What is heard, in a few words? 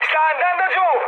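Italian aircraft destroyed mood high, speech; War Thunder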